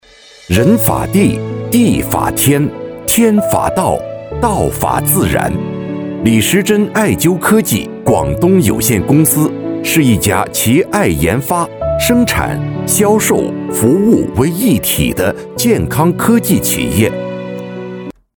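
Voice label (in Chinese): 男【企业宣传片 大气激情】; 中医药公司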